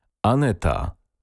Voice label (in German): polnische maedchennamen aneta